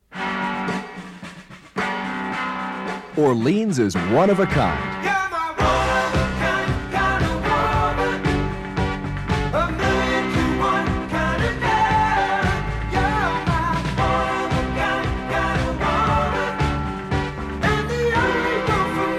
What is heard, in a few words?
Radio Commercials